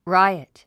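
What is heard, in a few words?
"riot", English word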